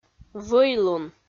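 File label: "вӧйлун", Komi, female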